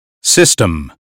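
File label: "system", American English; 英语音标发音（剑桥版）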